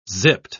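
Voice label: "zipped", British English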